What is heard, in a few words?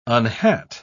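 "unhat", British English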